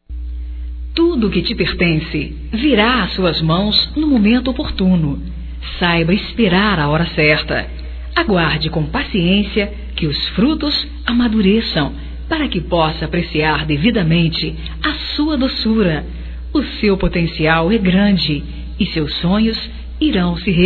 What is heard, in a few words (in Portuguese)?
Otimismo Geral Feminino; Telemensagens 2017